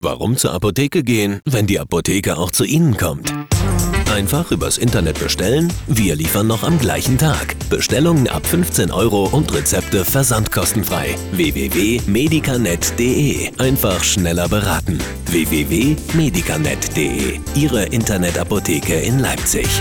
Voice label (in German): Spot ohne Klingel, Radiowerbung; Medikanet